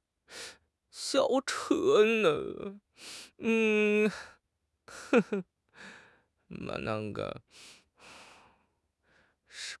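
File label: "jiao1 liu2", Mandarin Chinese; HSK; HSK4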